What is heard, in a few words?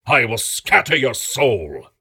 Voices Phrase Hi